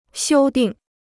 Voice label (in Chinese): "修订", Chinese